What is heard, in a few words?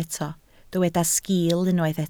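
"sgil" Welsh, spoken word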